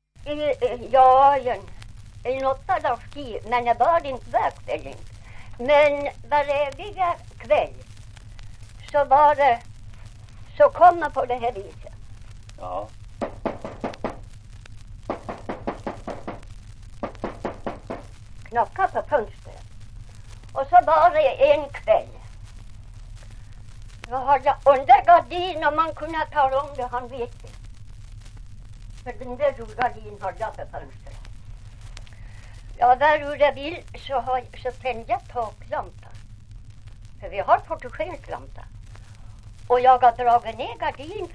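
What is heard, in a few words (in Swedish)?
Ovansjö Gästrikland, dialekter; Folkminnen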